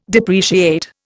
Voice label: "depreciate", Indian